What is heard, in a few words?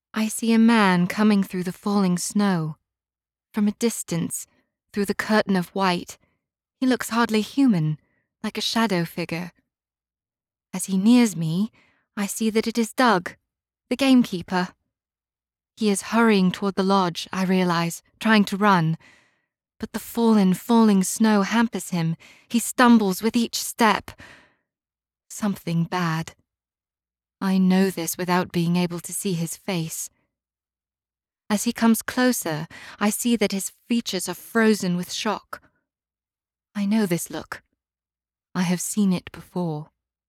1st Prsn Mystery Accent